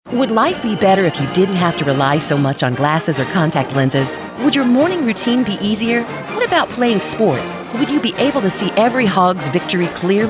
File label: radio spot; MEA Razorback